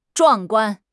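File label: "壮观", Mandarin Chinese; HSK6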